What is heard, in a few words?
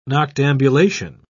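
"noctambulation", British English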